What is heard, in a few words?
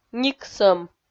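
"никсӧм", Komi, female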